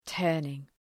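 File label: "turning", English; {‘tɜ:rnıŋ}; (Επίθετο)● περιστροφικός (Ουσιαστικό)● στροφή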